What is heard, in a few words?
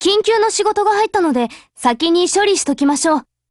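Japanese, Voice; GF Alarm; PDW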